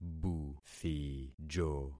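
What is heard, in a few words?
"buthijo", concatenated syllables; Affixing experiment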